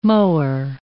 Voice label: "mower", English

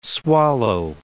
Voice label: "swallow", English word

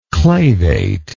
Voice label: "clavately", English

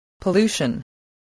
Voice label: "pollution", English

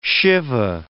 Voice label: "shive", English

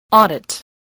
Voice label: "audit", english

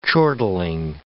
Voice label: "chortling", English

